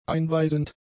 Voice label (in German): "einweisend", german; adjectives